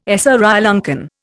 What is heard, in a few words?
"Sri Lankan", Indian